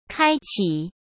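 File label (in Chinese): "开启" Mandarin Chinese; chinese-flashcards; hsk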